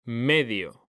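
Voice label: "medio", Spanish, male; mekanta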